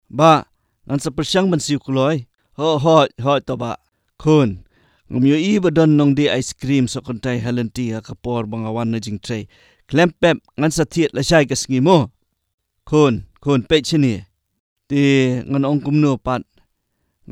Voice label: male, khasi; Professional male Khasi voice artist